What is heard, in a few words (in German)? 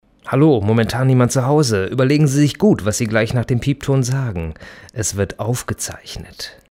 anrufbeantworter; dieter nuhr